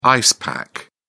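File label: "ice pack", British English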